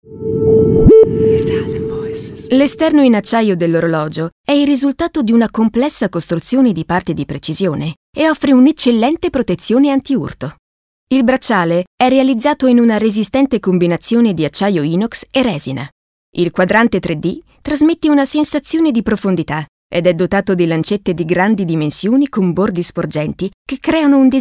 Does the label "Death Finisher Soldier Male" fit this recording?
No